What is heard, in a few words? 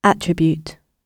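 "attribute", British English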